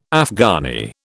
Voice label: "Afghani", British

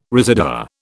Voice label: "residua", British

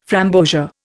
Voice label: "framboesia", Indian

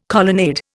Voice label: "colonnade", Indian

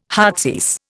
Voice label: "heartsease", Indian

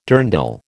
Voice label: "dirndl", American

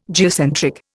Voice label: "geocentric", Indian

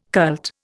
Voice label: "cult", Indian

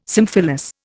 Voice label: "symphyllous", Indian

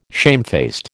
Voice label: "shamefaced", American

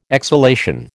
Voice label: "exhalation", American

